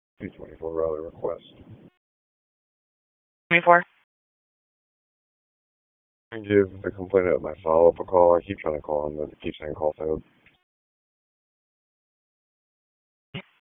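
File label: Police Northeast District Dispatch, p25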